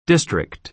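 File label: "district" American English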